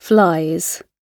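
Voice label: "flies", British English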